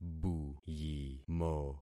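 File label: "buyimo", concatenated syllables; Affixing experiment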